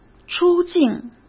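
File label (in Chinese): "出境", Mandarin Chinese; hsk; chinese-flashcards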